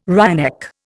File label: "wryneck", Indian